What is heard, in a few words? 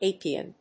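"apian", English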